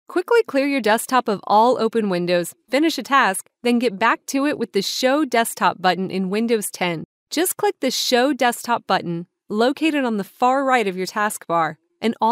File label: Explainer Narration